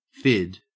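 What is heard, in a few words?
"fid", Australian English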